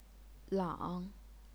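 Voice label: "lang", Chinese, third tone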